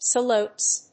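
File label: "salutes", English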